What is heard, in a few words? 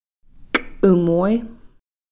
"k'umoy", Cow Creek